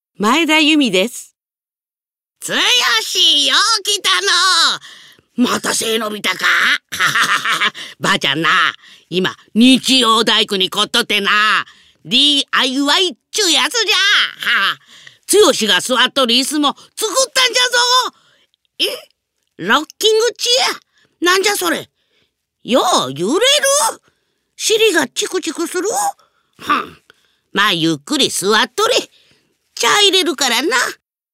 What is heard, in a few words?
drama